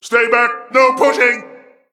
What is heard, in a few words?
Male Mech Play